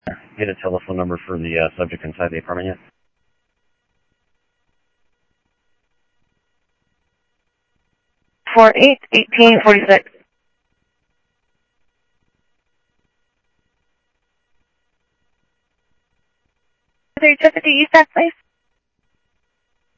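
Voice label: Sheriff East County, scanner audio; MCSO - EAST; South Scottsdale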